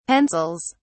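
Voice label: "pencils", English, British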